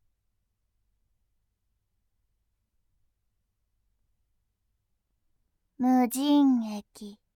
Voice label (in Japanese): 「無人駅」, ASMR